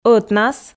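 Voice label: "ӧтнас", Komi, female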